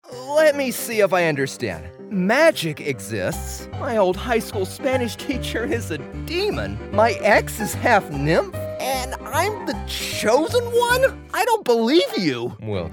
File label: Character